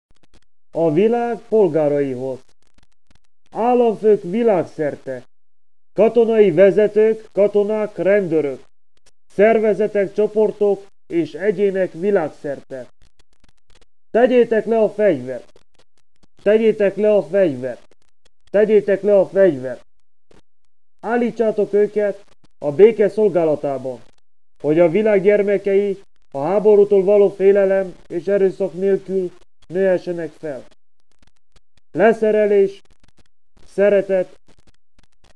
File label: global disarm call, Hungarian